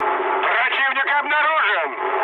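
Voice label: Russian enemy detected mood med, speech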